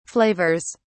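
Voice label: "flavors", British English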